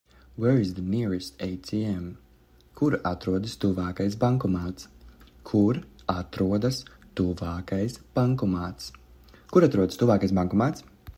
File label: Where is the nearest ATM, Latvian; the talking latvian phrasebook